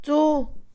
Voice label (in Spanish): tarde, Mixe, Mujer; Tiempo; INALI